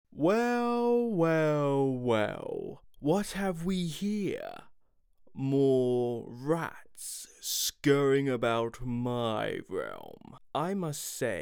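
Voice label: evil villain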